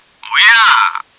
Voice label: oh yea, german; csco; csgo; bot

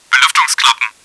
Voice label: vents, german; csco; csgo; bot

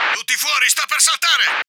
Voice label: blow, italian; csco; csgo; radio

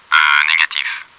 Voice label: ahh negative, french; csco; csgo; bot

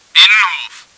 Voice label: courtyard, german; csco; csgo; bot

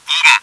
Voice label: upstairs, german; csco; csgo; bot

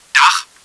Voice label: roof, german; csco; csgo; bot

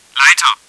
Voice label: ladder, german; csco; csgo; bot

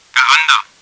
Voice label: porch, german; csco; csgo; bot

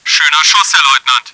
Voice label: nice shot commander, german; csco; csgo; bot

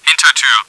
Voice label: back door, german, bot; csco; csgo

